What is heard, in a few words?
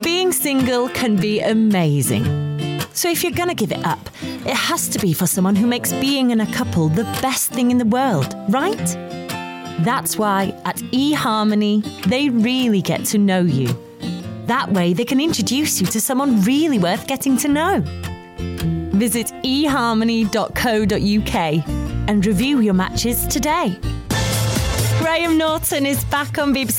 ENGLISH VOICE OVER